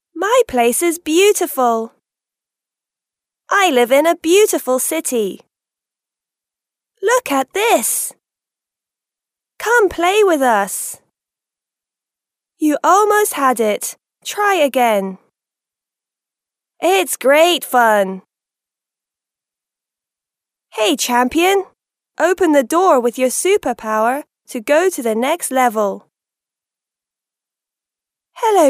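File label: British English female children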